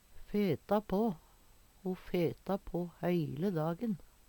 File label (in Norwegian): feta på, Numedalsmål; Ho feta på heile dagen.